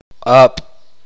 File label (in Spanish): uspanteko mano